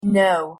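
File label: pronunciation "no", English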